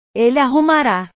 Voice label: "ele arrumara", portugues

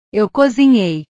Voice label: "eu cozinhei", portugues; cozinhar; portugues/verbos

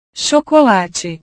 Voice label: "chocolate", portugues

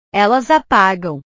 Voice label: "elas apagam", portugues

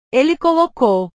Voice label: "ele colocou", portugues; portugues/verbos

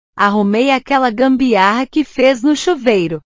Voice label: "gambiarra", portugues

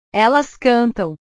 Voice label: "elas cantam", portugues; cantar; portugues/verbos

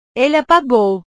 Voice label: "ele apagou", portugues

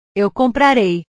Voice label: "eu comprarei", portugues; portugues/verbos

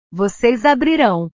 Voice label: "voces abrirao", portugues; Ustedes abrirán; verbos

